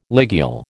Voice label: "ligule", American

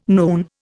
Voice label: "noon", Indian

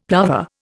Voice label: "plover", Indian; Dictionary

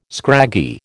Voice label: "scraggy", American

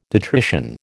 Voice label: "detrition", American

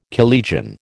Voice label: "collegian", American

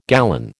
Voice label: "gallon", American

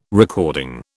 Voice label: "recording", British

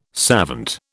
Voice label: "savant", British

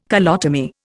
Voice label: "colotomy", Indian

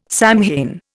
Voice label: "Samhain", Indian

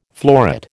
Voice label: "floret", American

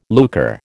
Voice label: "lucre", American

